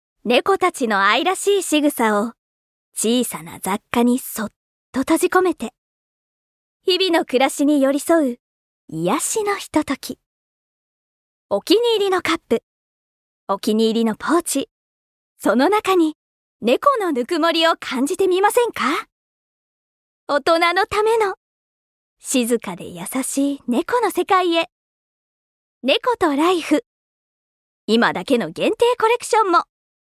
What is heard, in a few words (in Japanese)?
雑貨CM